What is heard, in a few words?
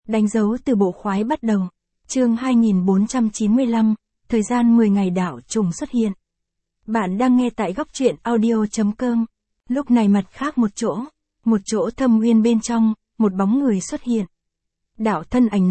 vi-VN Standard; danh dau tu bo khoai bat dau